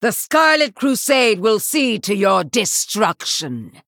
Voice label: Female Human Start